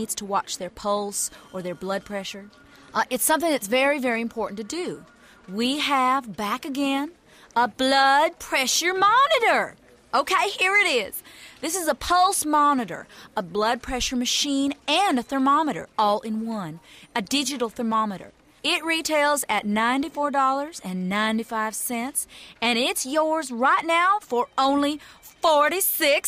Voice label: Television; Home Shopping Show With Announcer And Typing Sounds. From Close.